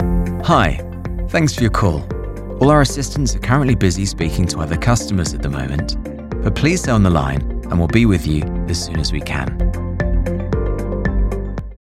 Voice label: Corporate Telephony; voice-over